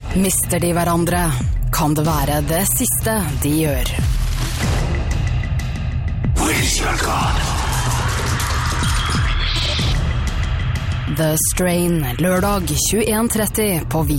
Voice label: Norwegian, Scandinavian, Female, 20s-40s, voiceover